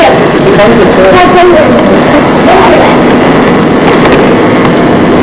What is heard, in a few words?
whisper